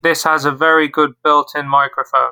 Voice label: Mic Test